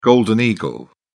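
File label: "golden eagle", British English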